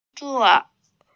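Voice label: "work", Mandarin Chinese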